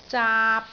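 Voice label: "zaap3", Cantonese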